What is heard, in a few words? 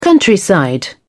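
"countryside", British English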